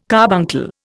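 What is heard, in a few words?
"carbuncle", Indian English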